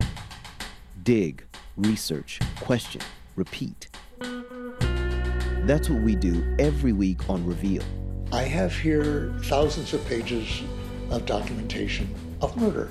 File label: Promo